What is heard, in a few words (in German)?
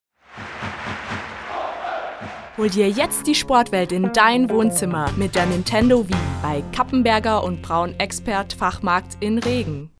Werbung Reminder